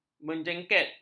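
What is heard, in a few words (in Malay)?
"menjengket", Malay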